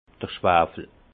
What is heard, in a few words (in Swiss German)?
"schwafel", Alsace; vin; soufre